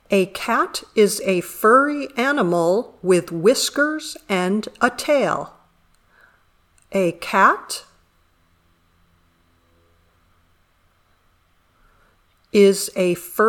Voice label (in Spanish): Dictado